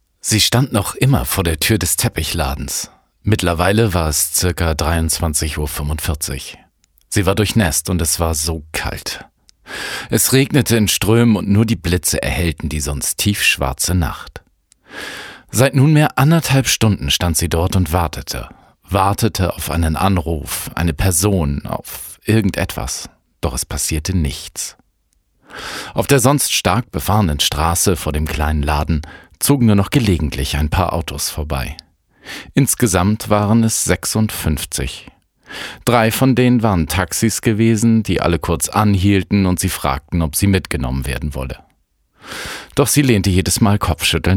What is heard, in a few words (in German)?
Erzaehlung